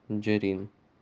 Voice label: Песня нанайский

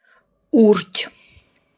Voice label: "Хорошо", нивский; Урдь; транскрипция [урд’]

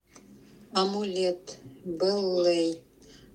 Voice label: "бэллэй", [бэллэй]

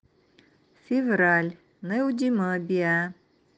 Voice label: февраль, русский

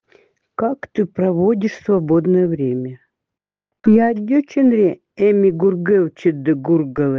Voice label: время эвенский

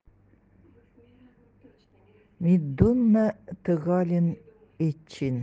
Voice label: Край эвенкийский язык

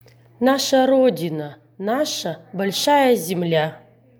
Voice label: Аудиофайл предложения русский

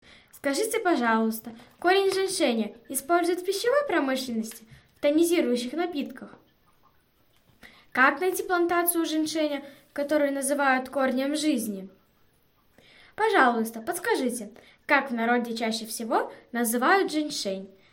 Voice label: предложение на русском

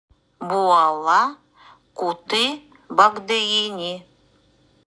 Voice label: УДЭГЕЙСКИЙ В Тайге живет тигр

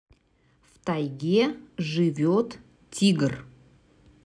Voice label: РУССКИЙ В Тайге живет тигр

